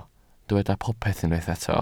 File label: "popeth", Welsh